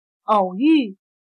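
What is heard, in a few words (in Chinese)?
"偶遇" Mandarin Chinese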